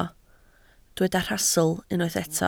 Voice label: "rhastl", Welsh